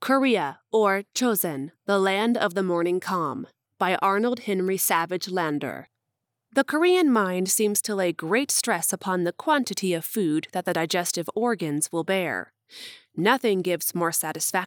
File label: Narration Sample; Korea